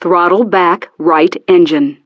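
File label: "Throttle Back Right Engine", English; DCS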